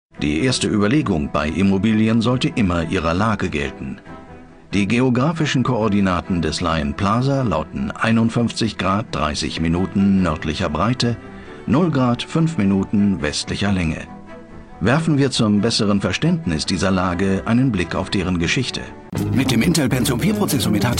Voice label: German; Vocal Point